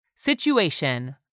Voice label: "SITUATION", english; 3000 words